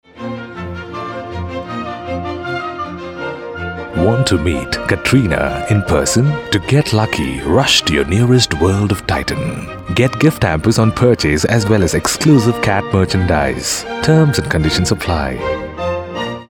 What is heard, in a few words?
English, male voice